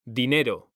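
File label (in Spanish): "dinero", Spanish; mekanta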